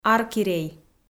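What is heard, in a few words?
"аркирей", Komi, female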